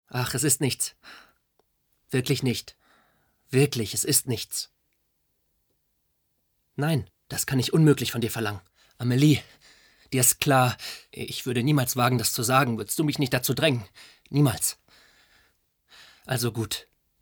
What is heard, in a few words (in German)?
Synchron, emotional, nervös, Lip-Sync, Comedy, German, Jung (18-30); Germany